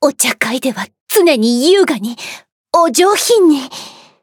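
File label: start, Japanese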